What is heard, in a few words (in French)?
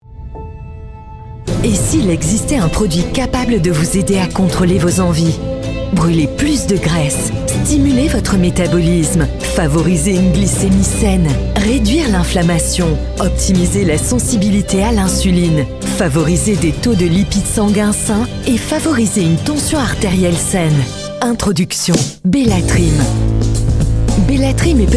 Promo Web, voix off feminine; BELLATRIM BELLA GRACE